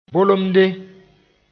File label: "bolemde", moore